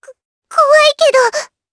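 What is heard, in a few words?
voice Skill, voices heroes, Japanese; Lavril; kingsraid; jp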